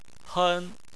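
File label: "hen", Chinese, first tone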